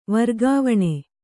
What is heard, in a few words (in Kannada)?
"ವರ್ಗಾವಣೆ", kannada